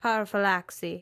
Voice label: female garrison, greek

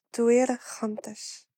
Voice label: civ female walk, napatan

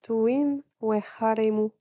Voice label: civ female fish, napatan

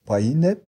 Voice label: male march, voice napatan civ

